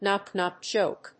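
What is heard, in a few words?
"Knock-knock joke", English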